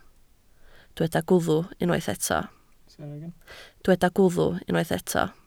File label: "gwddf", Welsh